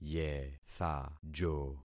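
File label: "yethajo", concatenated syllables; Affixing experiment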